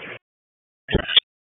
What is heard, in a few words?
Police North District Tac 1, p25; Raleigh; wake